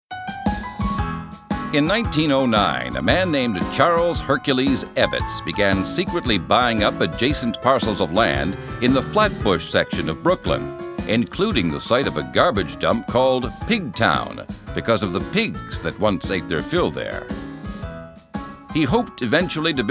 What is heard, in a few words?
Baseball Narration